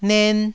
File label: "nen1", taiwanese